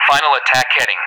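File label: "final attack heading", English speech; DCS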